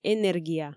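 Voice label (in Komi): "энергия", Komi, female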